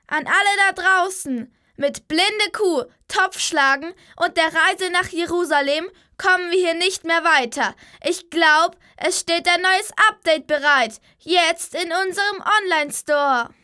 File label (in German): maedchen